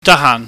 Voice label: "dakhaahn", Cantonese